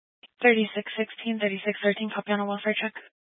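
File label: Police Dispatch, Scanner audio recording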